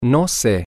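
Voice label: I dont know, spanish, male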